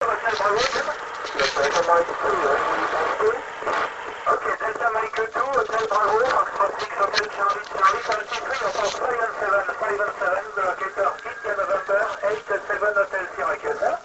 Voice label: iss crossband